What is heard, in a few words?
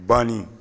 "banni", Bambara; actions